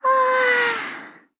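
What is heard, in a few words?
story, Japanese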